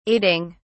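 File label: "aiding", British English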